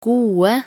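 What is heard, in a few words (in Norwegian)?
"gode", Norwegian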